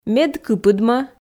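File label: "мед кыпыдмӧ", Komi, female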